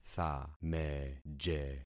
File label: "thameje", concat syllables; Affixing experiment